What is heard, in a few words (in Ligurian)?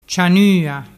"cianua", dialettu